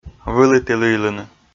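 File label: "выліті лыйлыны", Komi, male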